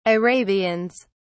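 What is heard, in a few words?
"arabians", British English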